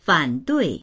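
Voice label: "fan3dui4", Mandarin Chinese; fǎnduì; 反对; 反対する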